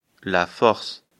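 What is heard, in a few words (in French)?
"force", French; afrikalan; lecture fr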